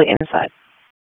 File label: Police North District Tac 1, p25; Raleigh; wake